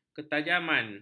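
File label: "ketajaman", Malay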